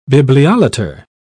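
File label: "bibliolater", British English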